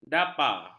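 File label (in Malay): "dapa", Malay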